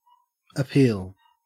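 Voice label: "appeal", Australian English; pronunciations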